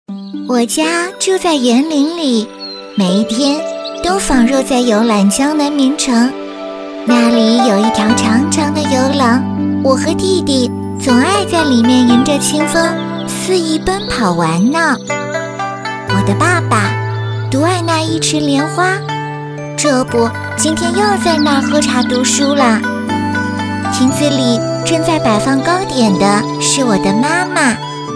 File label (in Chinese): 女 卡通女童; 我家住在园林里